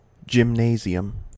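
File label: "gymnasium", American English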